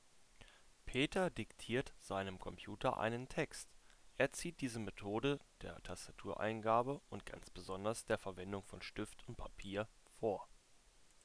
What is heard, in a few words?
Razer Kraken X